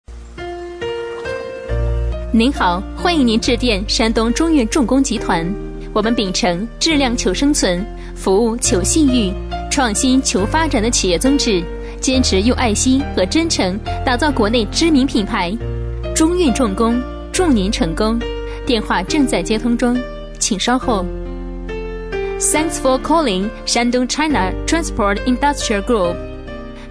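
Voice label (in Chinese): 女声【中英彩铃】（稳重大气）; 山东中运重工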